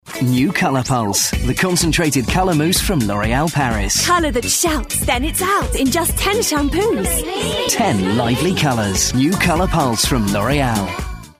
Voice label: Commercial; Loreal